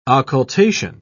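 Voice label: "occultation", British English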